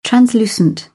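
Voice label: "translucent", British English; AmericanBritish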